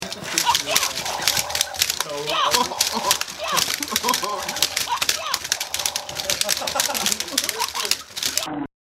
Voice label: karate sounds